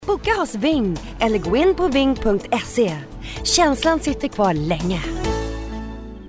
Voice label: soft sell

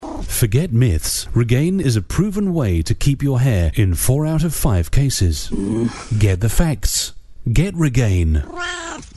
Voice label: commercial

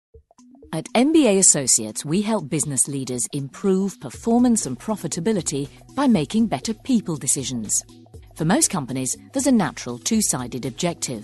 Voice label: corporate

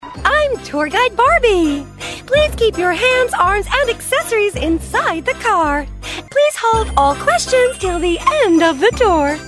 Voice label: North American

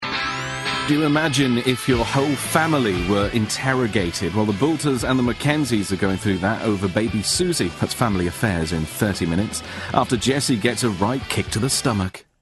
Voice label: friendly

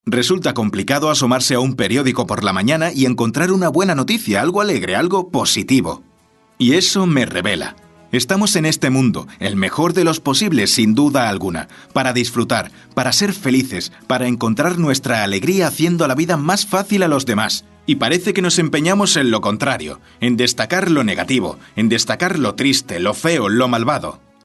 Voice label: audiobook

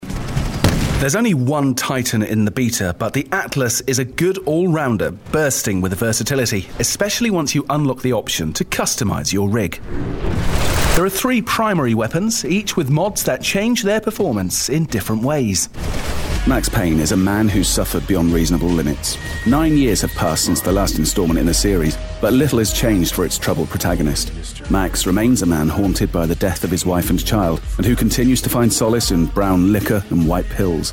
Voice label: radio DJ

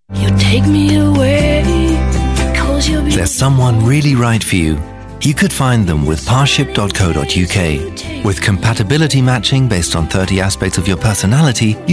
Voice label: commercial

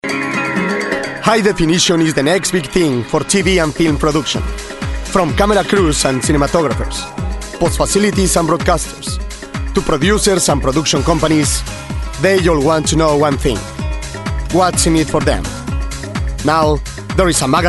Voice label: VO English

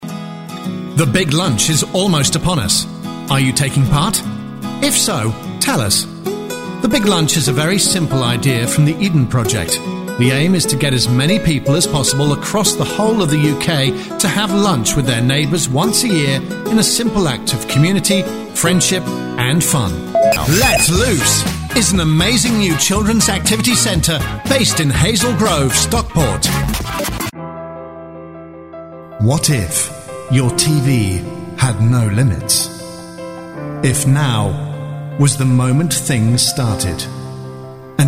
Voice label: commercial

